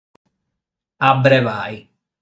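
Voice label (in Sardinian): "Abrebai", Sardinian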